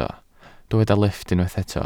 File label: "lifft", Welsh